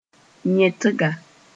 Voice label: "yẽtga", moore